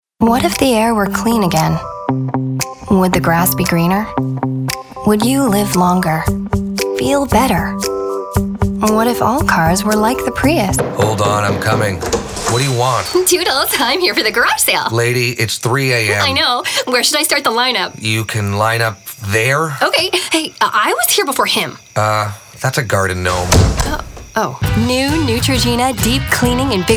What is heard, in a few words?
commercial female